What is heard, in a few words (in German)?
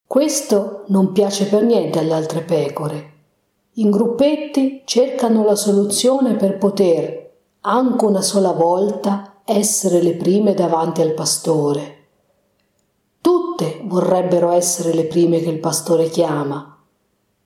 italienisch